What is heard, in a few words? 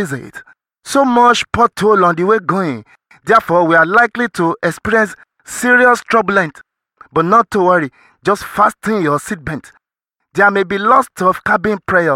Mid-Flight Announcement Skit; Falz